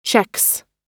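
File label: "checks", American English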